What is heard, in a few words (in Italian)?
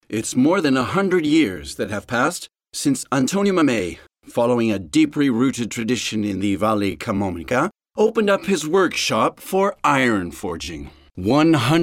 inglese usa